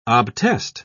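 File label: "obtest", British English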